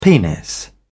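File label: "penis", English, British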